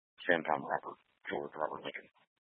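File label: Police Dispatch, Scanner audio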